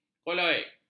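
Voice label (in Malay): "koloid", Malay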